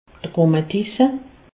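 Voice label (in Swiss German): "rhumatisse", Alsatian; medecine; arthrite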